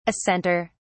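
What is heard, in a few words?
"assenter", British English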